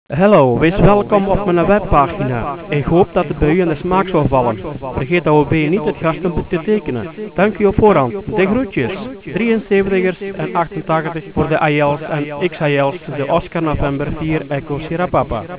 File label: voice ned